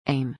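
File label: "aim", English; ねらいをつける、向ける; éim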